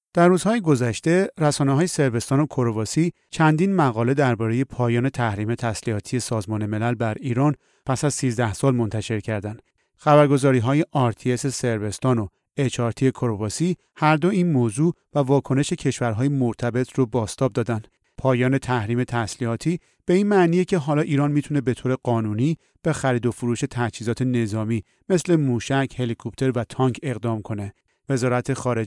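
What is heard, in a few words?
Persian Dry Read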